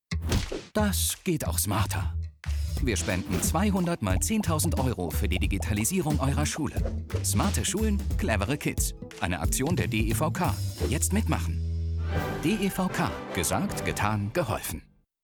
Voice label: Spot Smarte Schulen; Werbespot

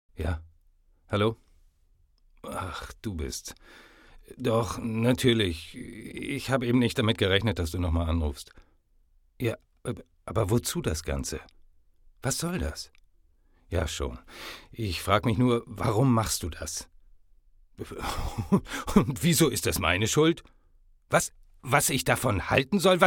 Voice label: Telefonat; Gespräch

